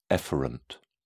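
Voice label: "efferent", British English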